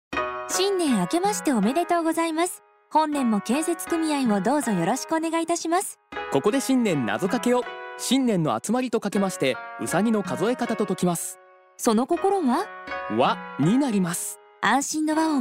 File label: radio; osaka